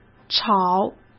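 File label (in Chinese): "炒" Mandarin Chinese; hsk; chinese-flashcards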